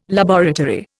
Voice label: "laboratory", Indian